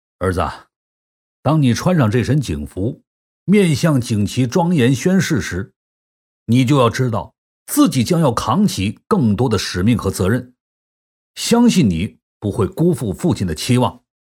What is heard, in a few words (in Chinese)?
男 角色配音 50岁父亲对儿子说话; 素人自然; 男60-角色配音-50岁父亲对儿子说话